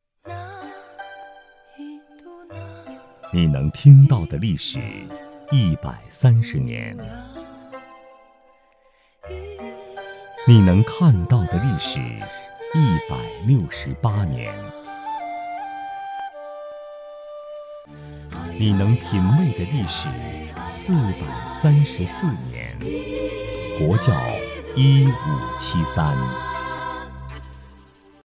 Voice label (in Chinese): 广告,模仿; 国窖1573; 模仿孙悦斌